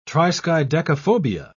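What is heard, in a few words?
"triskaidekaphobia", British English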